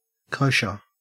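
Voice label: "kosher", Australian English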